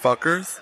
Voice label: fuckers male; Voice; expletives